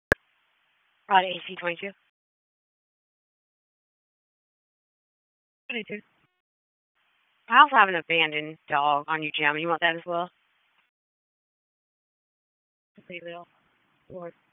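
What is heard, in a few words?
Police Southeast District Dispatch; Raleigh; wake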